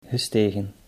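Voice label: "gestegen", Dutch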